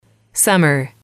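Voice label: "summer", English, female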